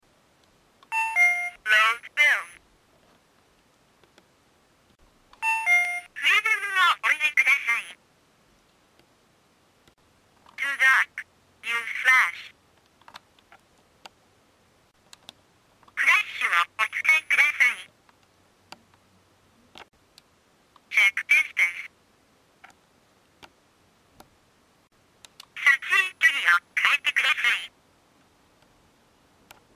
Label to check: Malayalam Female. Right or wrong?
Wrong